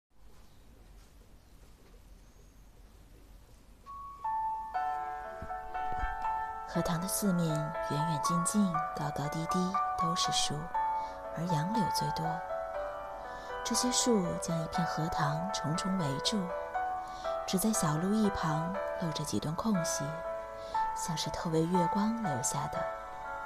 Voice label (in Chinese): 有声主持散文